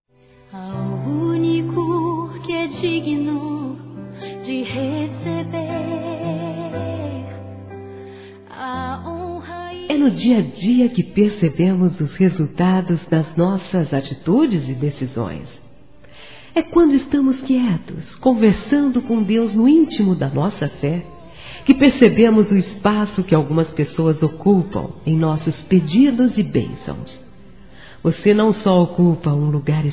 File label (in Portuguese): Evangélico Aniversário Casamento Romantico Feminino; Aniversários